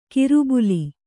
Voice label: "ಕಿರುಬುಲಿ", Kannada

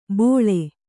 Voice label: "ಭೋಳೆ", kannada

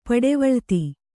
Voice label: "ಪಡವಳಿತಿ", kannada

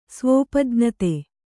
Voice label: "ಸ್ವೋಪಜ್ಞತೆ", kannada

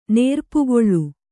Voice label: "ನೇರ್ಪುಗೊಳ್", Kannada

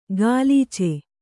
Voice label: "ಗಾಲೀಚೆ", kannada

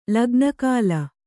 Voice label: "ಲಗ್ನಕಾಲ", Kannada; lagna kāla; noun

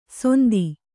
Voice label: "ಸೊಂದಿ", Kannada

